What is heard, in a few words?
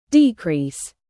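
"produce", verb, British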